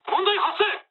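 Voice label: aircraft damaged mood low, speech japanese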